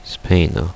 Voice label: "spanish", korean; Spe-ino

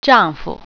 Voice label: "husband", Mandarin

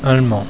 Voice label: "Allemand", french

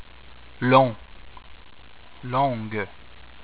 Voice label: "long longue", french

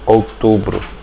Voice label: october, portuguese

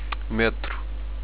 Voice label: subway, europortuguese

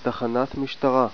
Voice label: "police", Hebrew

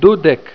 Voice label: "dudek", Esperanto

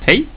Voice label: "Hej", swedish

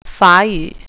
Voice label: "french", Mandarin

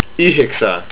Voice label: nine, estonian

